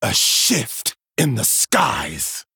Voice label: Male Human Play

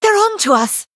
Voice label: Female Venthyr Attack

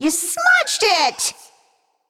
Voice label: Female Naga Attack

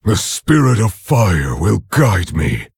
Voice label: orc emote fire festival; Nerzhul

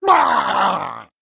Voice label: Male Murloc Death

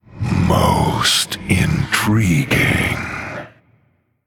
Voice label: Male Human Wow

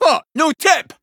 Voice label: Male Dwarf

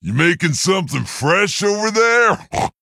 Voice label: Male Quilboar Idle; Zok

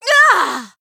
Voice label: Female Human Death

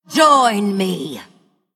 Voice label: Female Blood Elf Attack

